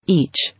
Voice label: "Each", English

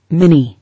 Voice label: "Mini", English

